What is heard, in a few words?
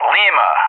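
"Lima", English; JTAC; Alphabet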